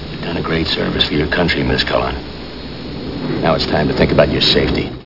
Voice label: threat; Amiga 8-bit Sampled Voice; worms dc; arnie